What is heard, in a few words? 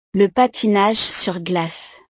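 "le patinage sur glace", French; deportes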